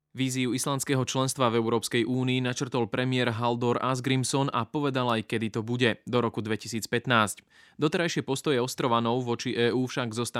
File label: Slovak Radio news